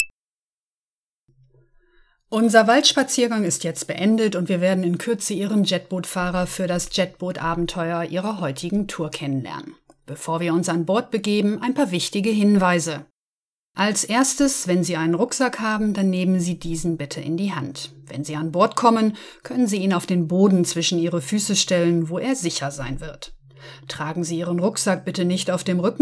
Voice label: german; dartriver